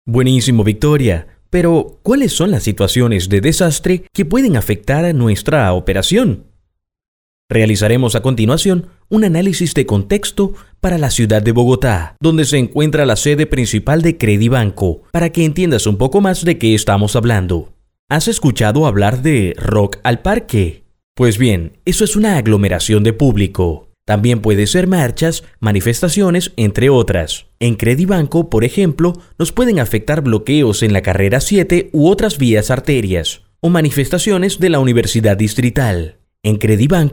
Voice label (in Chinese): 西班牙语男 干音 叙述